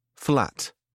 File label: "flat", British English